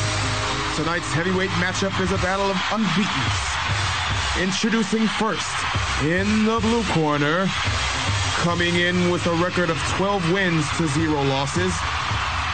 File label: Football v Lambuth, miscellaneous voiceover and production